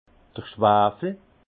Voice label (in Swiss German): "schwawel", alsace; vin; soufre